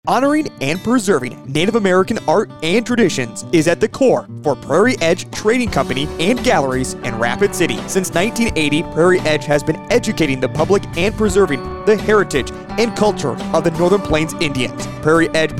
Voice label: Commercial; Prairie Edge